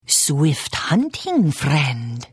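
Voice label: Hello, Khajiit female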